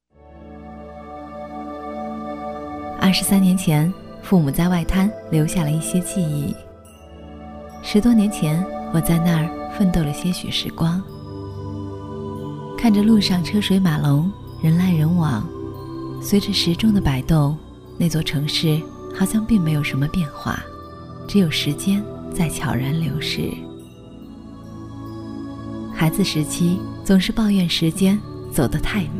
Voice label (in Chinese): 女 旁白 抒情 淑女; 寻找时光